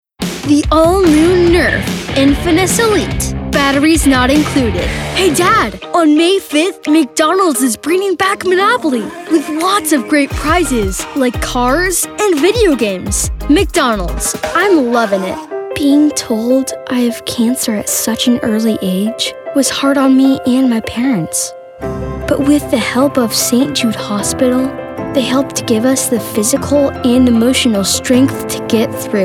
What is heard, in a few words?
Commercial